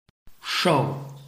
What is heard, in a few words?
"shou4", Chinese